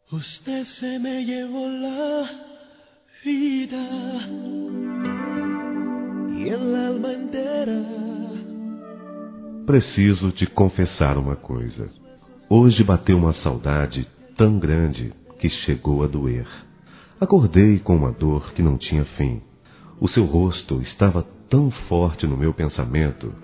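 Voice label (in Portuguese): Namoro Distante Masculino; Aniversários; ANIVERSARIO romantico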